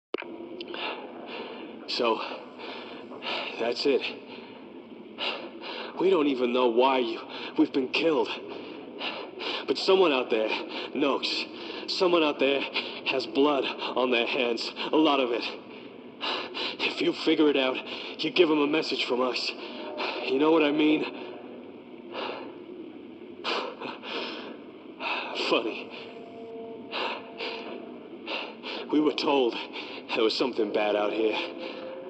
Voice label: MALE